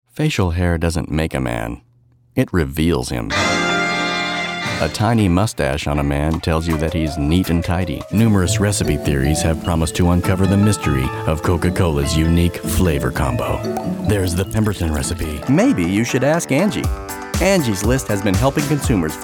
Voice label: commercial